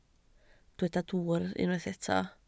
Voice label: "dwwr", Welsh